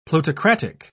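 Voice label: "plutocratic", British English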